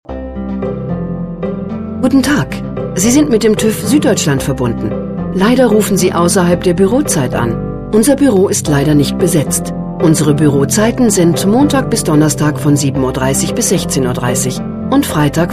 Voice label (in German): anrufbeantworter